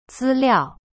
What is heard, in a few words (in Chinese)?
资料 zi liao, Chinese; cyberchinese